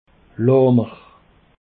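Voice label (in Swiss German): "laamer", Alsatian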